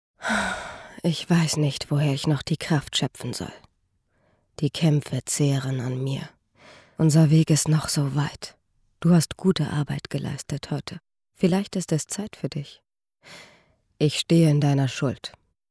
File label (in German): Kriegerin Game Hoerspiel